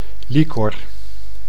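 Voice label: "liquor", Dutch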